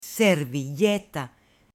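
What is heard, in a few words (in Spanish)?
"SERVILLETA", Spanish, female; AppLenguaje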